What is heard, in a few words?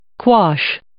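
"quash", English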